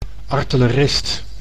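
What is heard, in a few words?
"artillerist", Dutch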